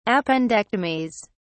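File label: "appendectomies", British English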